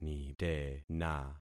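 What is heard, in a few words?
"nitena", concatenated syllables; Affixing experiment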